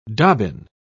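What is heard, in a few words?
"dobbin", British English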